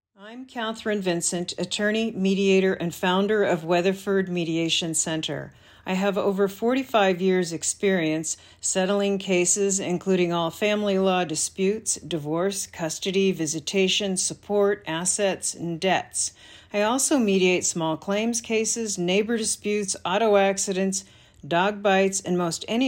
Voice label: Radio Ad Loop; WMC